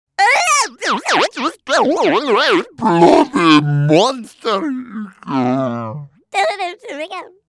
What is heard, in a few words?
battlecry; demoman